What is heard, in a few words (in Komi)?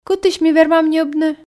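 кытысь ми вермам ньӧбны, Komi, female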